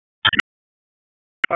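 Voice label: EMS DISP, radio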